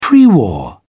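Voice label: "pre war", British English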